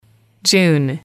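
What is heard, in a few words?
"june", English, female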